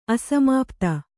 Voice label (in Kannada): "ಅಸಮಾಪ್ತಿ", Kannada